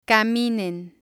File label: "caminen", spanish